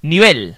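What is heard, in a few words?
level, Basque; gcompris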